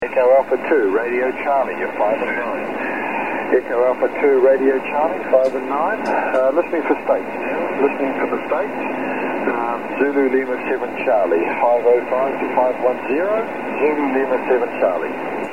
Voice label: 10M SSB